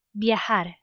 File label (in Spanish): "viajar", Spanish